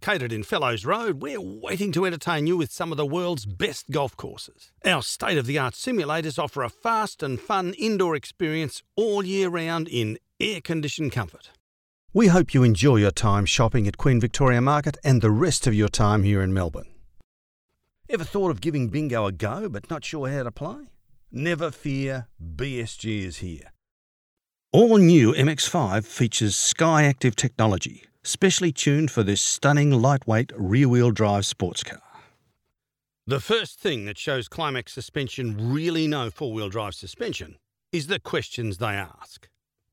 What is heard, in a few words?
radio Ad